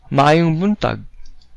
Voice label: good morning, cebuano